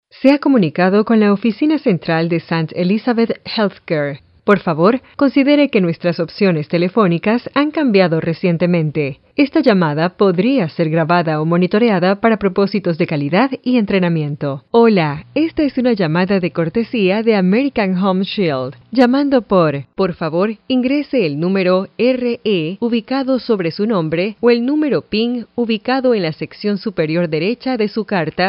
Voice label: IVR